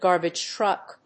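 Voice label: "garbage truck", English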